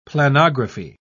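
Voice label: "planography", British English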